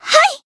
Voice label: Voice attack, jp

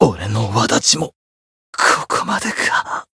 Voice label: Voice retire jp

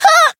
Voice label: Voice damaged; urania

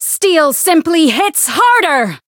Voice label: Voice variable chant; hiina

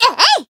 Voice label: attack jp

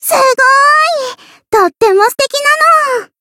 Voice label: growth, jp; lele

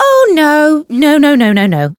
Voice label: Voice encount fear; lele

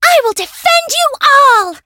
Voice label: variable chant; myrus

